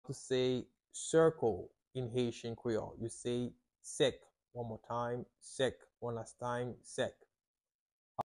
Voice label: How to say Circle in Haitian Creole Sek pronunciation by a native Haitian tutor